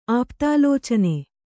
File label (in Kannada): "ಆಪ್ತಾಲೋಚನೆ", Kannada